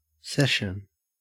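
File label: "session", Australian English; pronunciations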